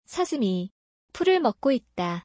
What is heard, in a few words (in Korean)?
a deer is eating grass, ko